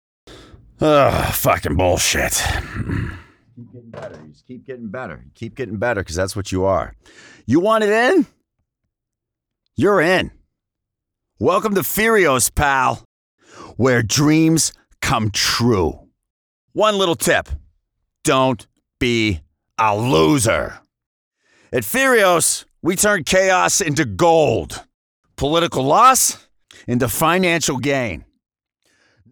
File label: comedic male voice over; wolf of wall street